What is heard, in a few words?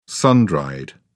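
"sun dried", British English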